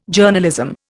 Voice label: "Journalism", Indian